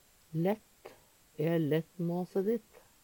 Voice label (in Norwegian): lett, Numedalsmål; lei; Adverb